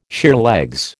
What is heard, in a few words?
"sheerlegs", American